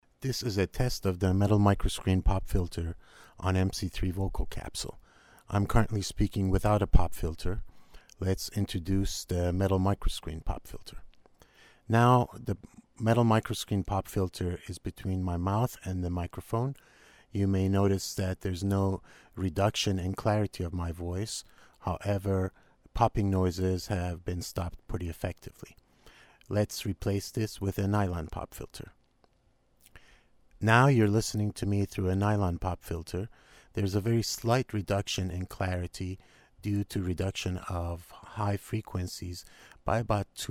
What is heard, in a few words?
MC3 popfilter